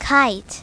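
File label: "kite", English; My Talking Dictionary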